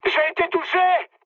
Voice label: aircraft damaged mood high, speech fr